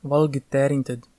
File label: welcome livonian